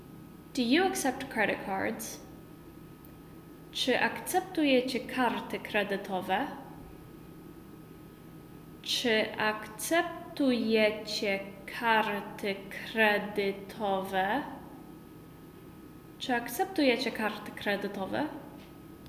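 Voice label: Polish Do you accept credit cards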